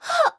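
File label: voice unbalance; Goddess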